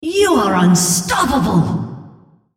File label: female On Killing Spree